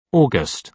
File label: august, UK